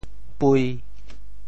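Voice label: "pui1", Teochew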